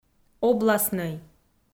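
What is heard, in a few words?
"областнӧй", Komi, female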